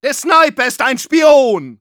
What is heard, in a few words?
Medic, de; Sprachbefehle